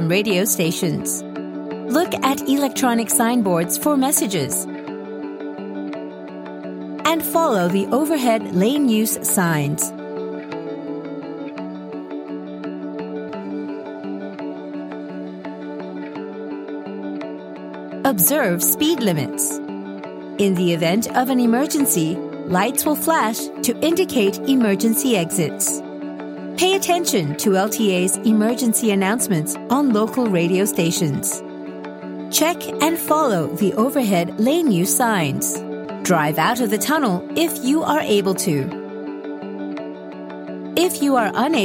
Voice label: Asian English safe driving in road tunnels